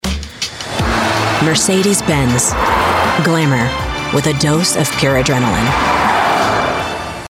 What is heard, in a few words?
Commercial Luxury; Mercedes-Benz